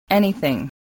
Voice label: "anything", spelling